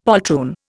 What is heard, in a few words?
"poltroon", Indian; Dictionary